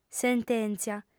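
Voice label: "sentèntzia", Sardinian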